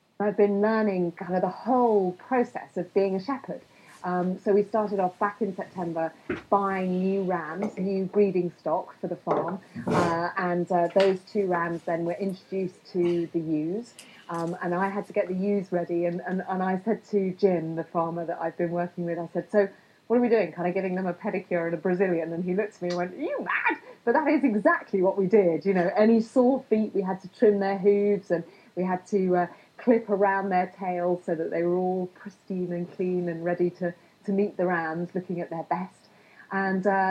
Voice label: A TV presenter, general british